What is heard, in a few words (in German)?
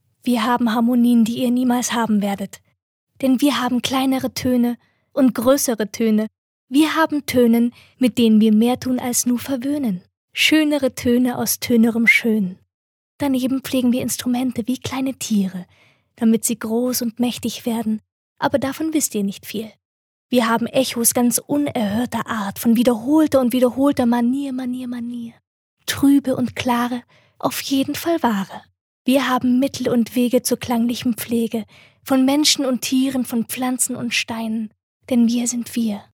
Schauspiel Sanft